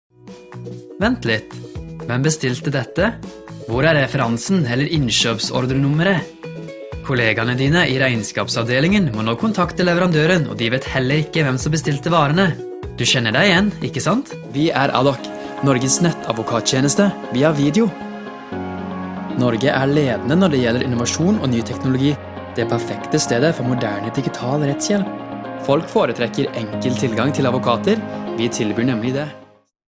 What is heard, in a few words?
Norwegian male